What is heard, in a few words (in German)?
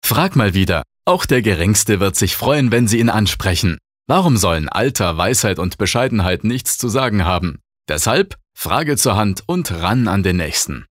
Werbung Dynamisch